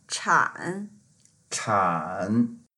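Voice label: "chan3", Mandarin Chinese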